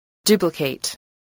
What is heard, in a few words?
"duplicate", English